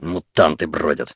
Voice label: soldier idle, russian